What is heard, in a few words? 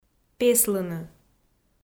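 "песлыны", Komi, female; audio dict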